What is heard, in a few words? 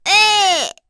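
Vox Deny, Korean; heroes; Cecilia; kingsraid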